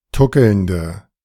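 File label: "tuckelnde", German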